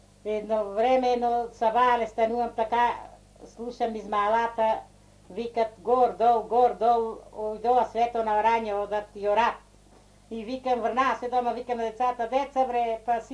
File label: Štip – Kočani dialect speech, Kočani region